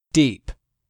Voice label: "deep", English; 3000 words